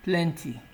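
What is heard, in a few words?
"plenty", Nigerian Pidgin, male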